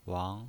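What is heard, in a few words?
"wang", pinyin voice, second tone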